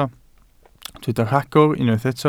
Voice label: "rhagor", Welsh